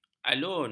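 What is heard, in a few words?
"alun", Malay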